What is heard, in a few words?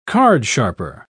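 "cardsharper", British English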